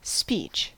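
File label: "speech", US English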